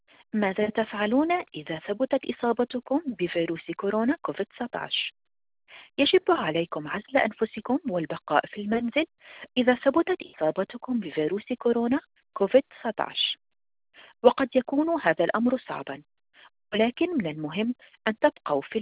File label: arabic; what to do if you have tested positive for coronavirus